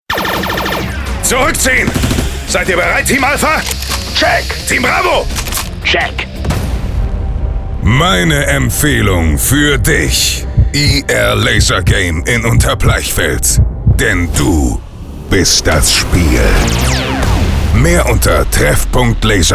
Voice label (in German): RadioSpot